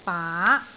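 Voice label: "baa2", Cantonese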